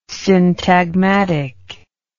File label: "syntagmatic", English word